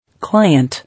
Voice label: "Client", English